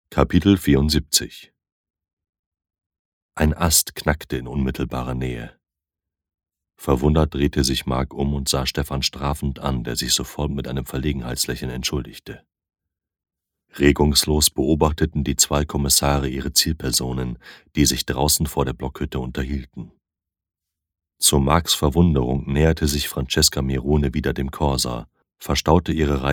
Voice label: Sprechprobe Krimi, hoerbuchsprecherinnen

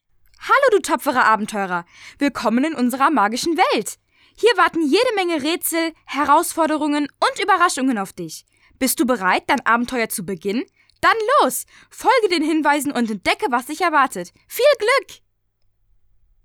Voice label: Sprechprobe 13 Jahre

